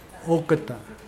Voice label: burn, Mokilese